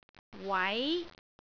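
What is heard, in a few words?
"wai2", Cantonese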